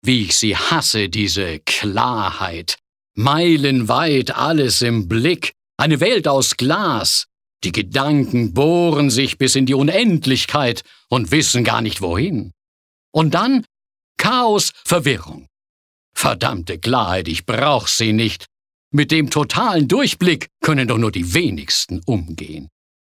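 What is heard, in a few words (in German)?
Schauspiel Dynamisch; Klarheit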